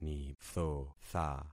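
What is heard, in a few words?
"nithotha", concatenated syllables; Affixing experiment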